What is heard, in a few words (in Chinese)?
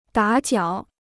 "打搅", Chinese